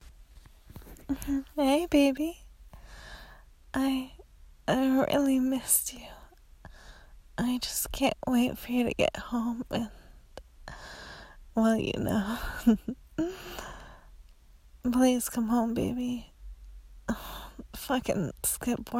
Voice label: Submissive Voicemail